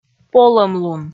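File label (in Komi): "полӧмлун", Komi, female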